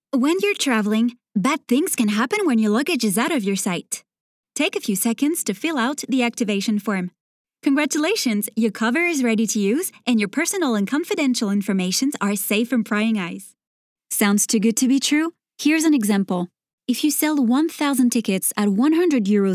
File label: English Explainer Video